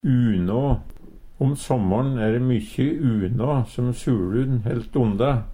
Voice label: unå, Numedalsmål; Substantiv inkjekjønn

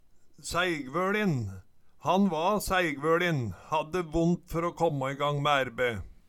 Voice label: saeigvoLin, Numedalsmål

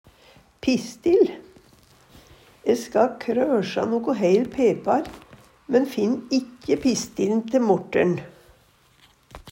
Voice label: "pistil", Numedalsmål; E ska krøsja noko heil pepar, men finn ikkje pistil'n te morter'n.

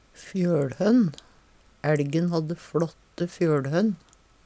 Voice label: fjoLhonn, Numedalsmål; Ælgen hadde fLåtte fjøLhønn.; Substantiv inkjekjønn

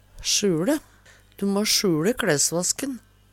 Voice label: sjuLe, Numedalsmål; Verb; Hushald, mat, drikke; Du må sjuLe kLæsvasken.

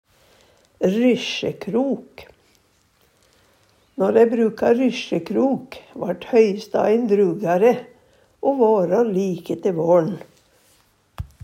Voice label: rysjekrok, Numedalsmål

